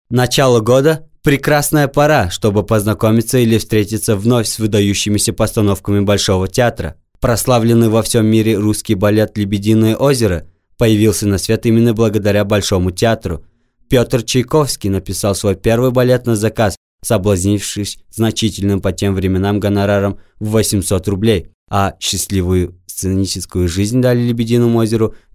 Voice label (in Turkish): RUSÇA